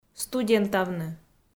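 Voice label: "студентавны", Komi, female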